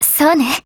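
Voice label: AGREE, Japanese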